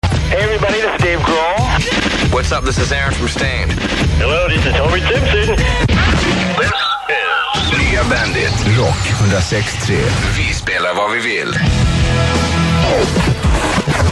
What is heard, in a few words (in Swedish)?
jingel